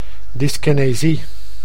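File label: "dyskenesie", Dutch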